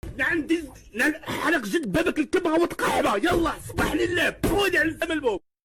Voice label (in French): insulte arabe